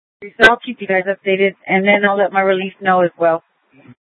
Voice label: Public Transit System Light Rail Ops, scanner